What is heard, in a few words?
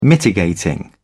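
"mitigating", British English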